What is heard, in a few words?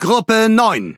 Speech Pilots Torpedo, DE; World Of Warships